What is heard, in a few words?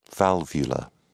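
"valvula", British English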